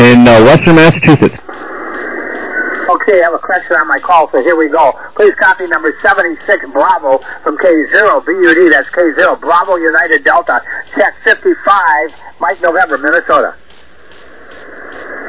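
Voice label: upper sideband 21MHz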